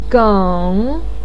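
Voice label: "geng" third tone, chinese, voice pinyin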